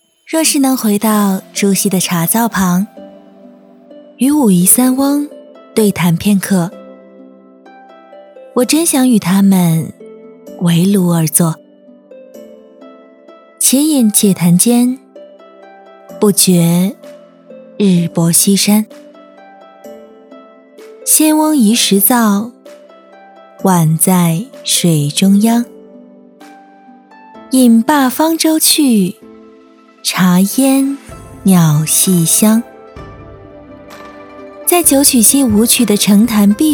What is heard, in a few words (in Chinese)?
景区 古风; 抖音